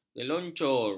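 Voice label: "geluncur", Malay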